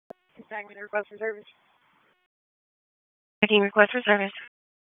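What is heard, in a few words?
Police Northeast District Dispatch, Raleigh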